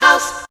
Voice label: Female; 90 sSampleCDs; Coldcut's Kleptomania VOL1